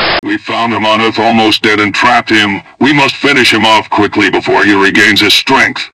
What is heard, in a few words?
grunt; svencoop; angel